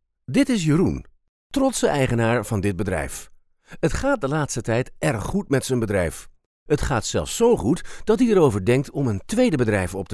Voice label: explanimation